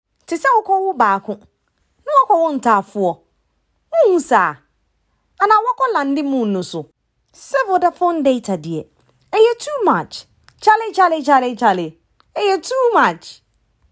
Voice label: female african and english twi commercial; vodafone